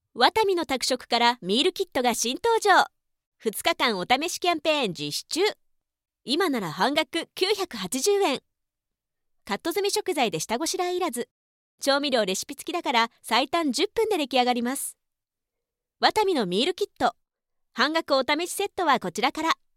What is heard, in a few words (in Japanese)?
ナレーション